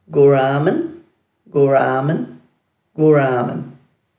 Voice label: "guraamanj", Dhurga